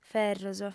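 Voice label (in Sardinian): "ferros", female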